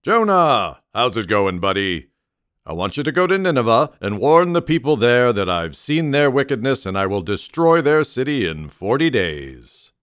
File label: Voice Of God